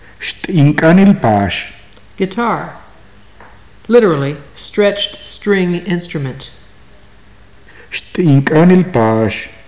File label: guitar, maya; xt'in k'áanil paax